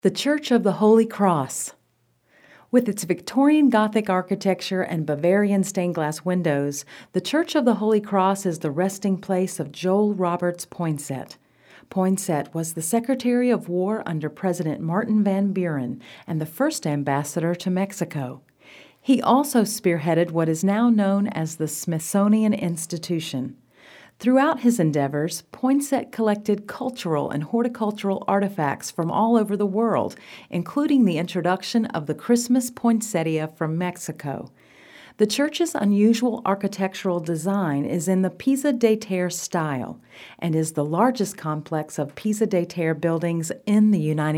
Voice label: Reading